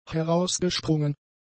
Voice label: "herausgesprungen", German; adjectives